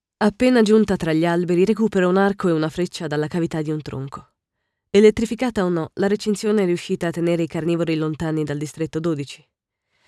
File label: Audiobook